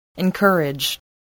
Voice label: "encourage", spelling